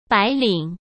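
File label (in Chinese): "白领", Mandarin; chinese-flashcards; hsk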